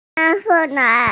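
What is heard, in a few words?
"tai2", Mandarin Chinese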